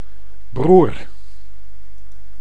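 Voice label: "broer", Dutch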